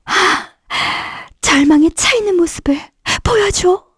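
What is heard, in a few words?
Vox Skill, Korean; Erze; kingsraid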